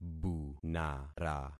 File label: "bunara", concat syllables; Affixing experiment